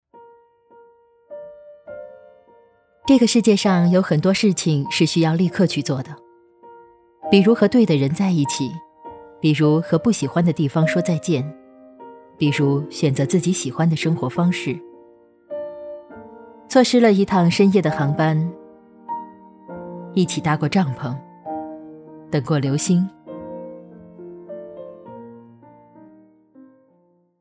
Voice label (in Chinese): 女 内心独白; 怕来不及